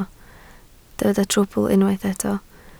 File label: "trwbl", Welsh